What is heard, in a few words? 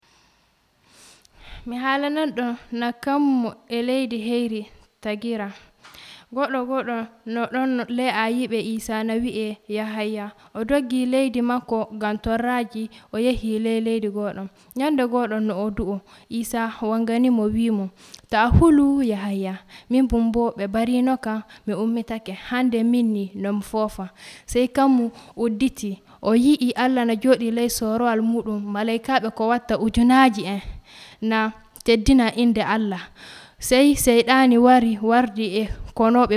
western niger fulfulde, drama; nouveau ciel nouvelle terre